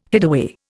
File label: "hideaway", Indian